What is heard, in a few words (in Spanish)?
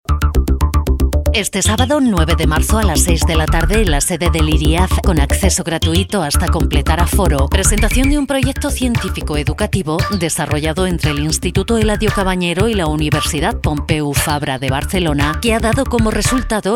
spot de radio; MCTF; Virus; Tomelloso